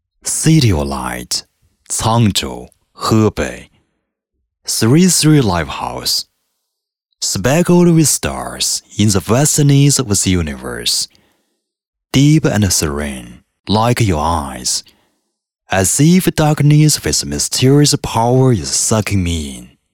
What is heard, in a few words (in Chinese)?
男, 英语专题片-酒吧